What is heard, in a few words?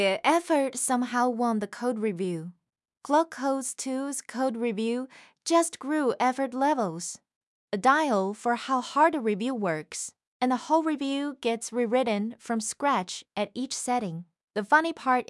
audiobook en; code review effort levels low effort wins